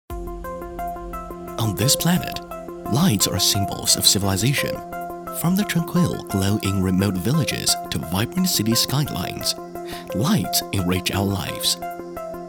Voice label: 英语 偏年轻 轻快科技感, 男

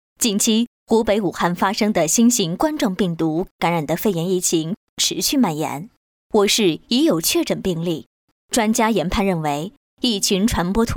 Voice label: B女 温馨广播 疫情防控

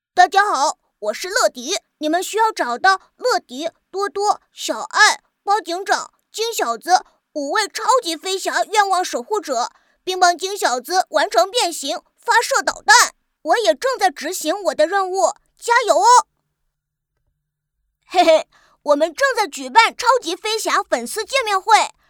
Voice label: 女 国语 男童

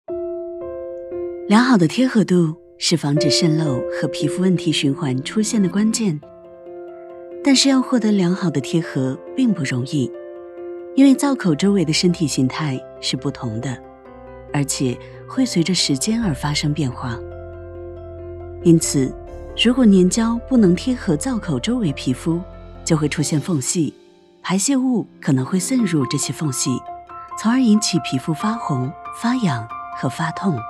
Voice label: 女 宣传片 自然成熟知性; 康乐保

